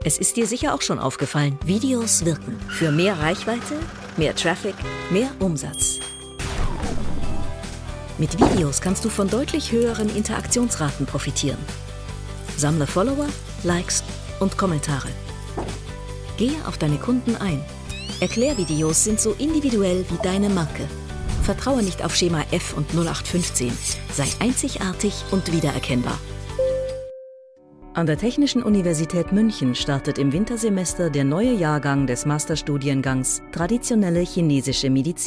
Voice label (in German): Imagefilm Mix; Bamberg, Bayreuth, Coburg, Nürnberg; Muttersprache Deutsch; tu muenchen; dataglobal